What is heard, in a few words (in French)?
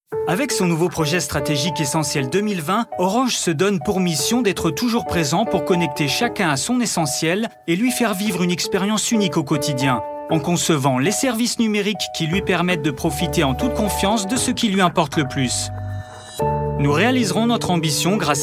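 Institutionnel, Voix médium, Ton dynamique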